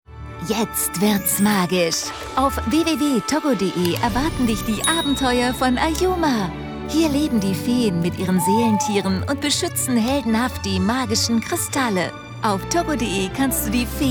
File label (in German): Werbung TV